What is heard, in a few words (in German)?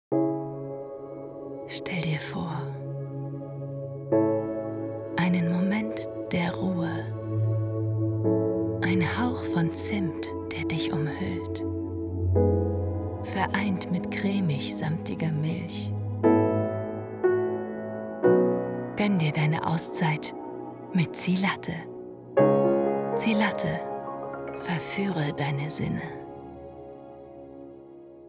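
Werbung verführerisch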